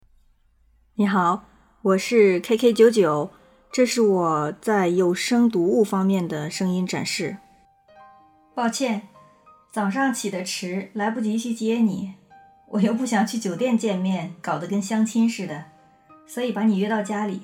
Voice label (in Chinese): 有声读物